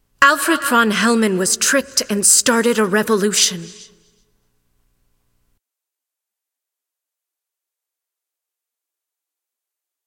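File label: cinematic voice, Spanish; lineage2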